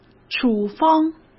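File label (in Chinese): "处方", Mandarin; chinese flashcards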